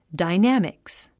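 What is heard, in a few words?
"dynamics", English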